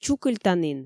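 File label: "чукыльтанін" Komi, female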